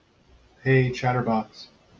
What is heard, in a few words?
hey chatterbox, en us